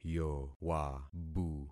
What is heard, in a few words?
"yowabu", concat syllables; Affixing experiment